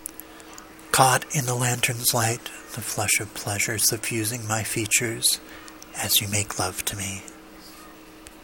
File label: spokenword; lantern's light